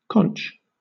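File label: "conch", British English; pronunciations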